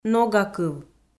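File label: "ногакыв", Komi-Zyrian, female; audio dict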